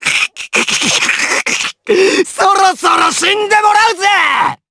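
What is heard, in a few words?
Vox Skill, Japanese; Kibera; kings raid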